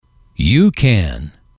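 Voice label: you can, male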